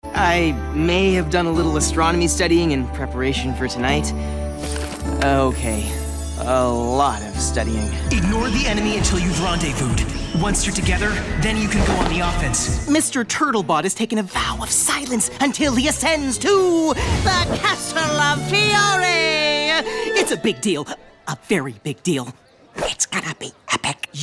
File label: Animation